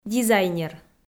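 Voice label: "дизайнер", Komi, female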